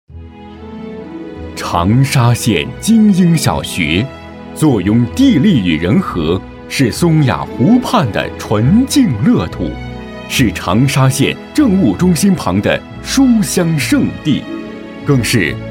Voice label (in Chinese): 男国 专题 学校 稳重; 金鹰小学